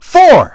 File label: dj, voice